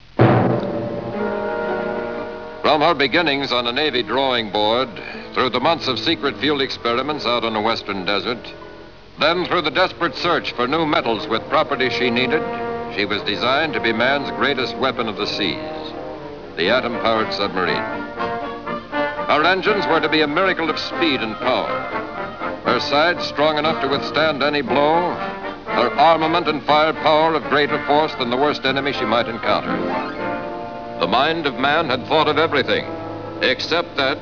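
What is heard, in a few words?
narration; Opening Narration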